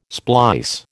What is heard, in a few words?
"splice", American